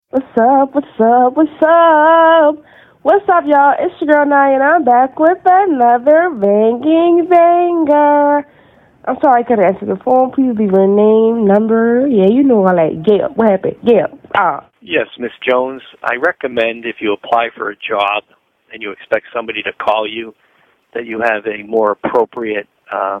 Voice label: voicemail